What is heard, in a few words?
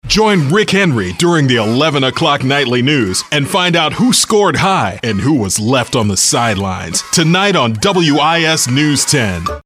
us tv promo